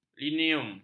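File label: "linoleum", Malay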